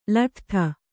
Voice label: "ಲೊಡ್ಡು", Kannada

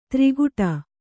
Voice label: "ತ್ರಿಗುಟ", kannada

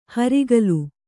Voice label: "ಹರಿಗೋಲು", kannada

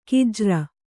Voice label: "ಖಜ್ಜೂರಿ", Kannada

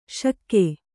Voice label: "ಷಕ್ಕು", Kannada

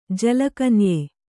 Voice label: "ಜಲಕನ್ಯೆ", Kannada

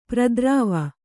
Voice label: "ಪ್ರತಿರವ", kannada

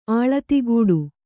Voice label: "ಆಳತಿಗೂಡು", Kannada

